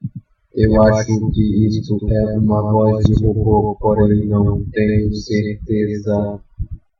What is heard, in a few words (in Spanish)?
voz de robo